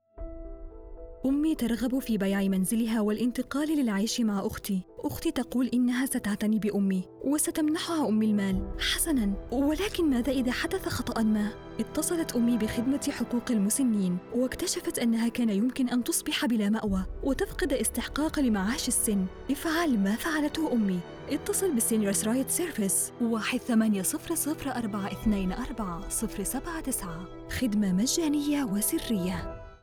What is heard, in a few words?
Arabic; Seniors Rights Service; Under One Roof